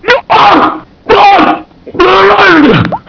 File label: mumble; svencoop; cracklife; gman